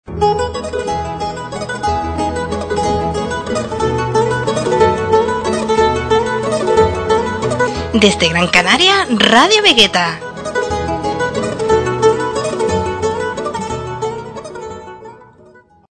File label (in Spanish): Promo Radio; Radio Vegueta